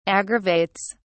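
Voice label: "aggravates", British English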